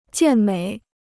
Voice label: "健美", Chinese